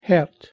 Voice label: "hert", Scots